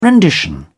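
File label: "rendition", English, British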